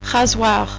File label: "rasoir", French